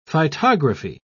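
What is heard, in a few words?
"phytography", British English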